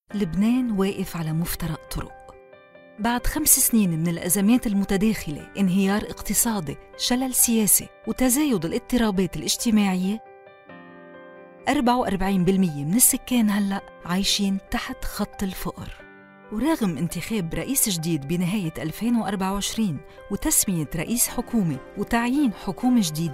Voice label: Educational Lebanese accent; Arabic; Female